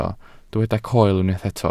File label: "coel", welsh